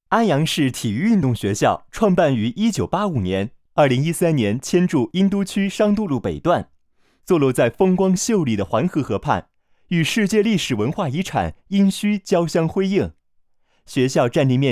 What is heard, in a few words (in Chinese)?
专题 学院类 活力动听